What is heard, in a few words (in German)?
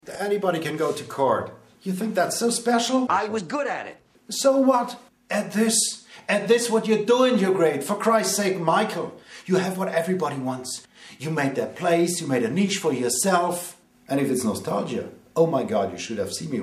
Englisch